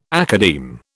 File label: "academe", British